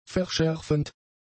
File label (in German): "verschärfend", german; adjectives